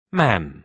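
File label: "man", British English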